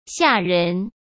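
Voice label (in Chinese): "吓人", Mandarin Chinese; hsk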